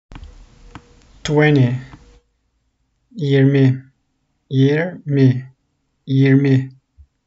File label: twenty 20, Turkish; the talking turkish phrasebook